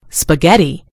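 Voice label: "spaghetti", British English; gcompris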